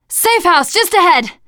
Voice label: safe spot ahead, teen girl; player; survivor; voice

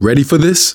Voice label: ask ready, survivor voice manager; Left 4 Dead 2; left4dead2 dlc3

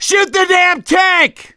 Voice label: battlecry tank, survivor voice; gambler

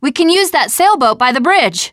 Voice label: spotting sailboat, teen girl

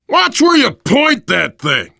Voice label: friendly fire, voice, biker; Left 4 Dead 2; survivor

